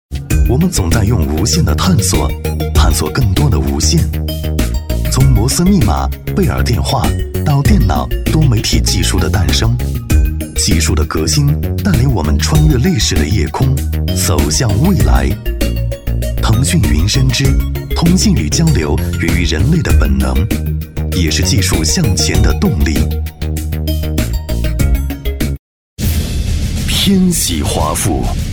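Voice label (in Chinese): 国语 男声 广告 激情激昂 大气浑厚磁性 科技感 积极向上; 天玺华府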